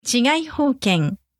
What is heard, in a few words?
"chigaihouken", Japanese; extraterritoriality